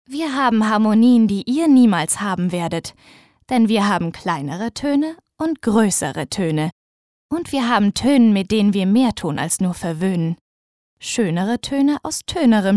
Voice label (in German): Schauspiel, Dynamisch